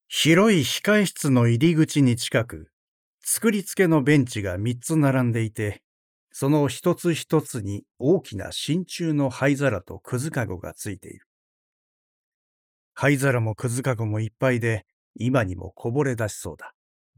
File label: male